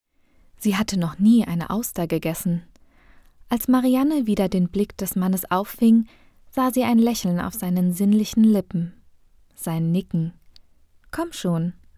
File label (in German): Literarisch